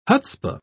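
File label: "hutzpah", British English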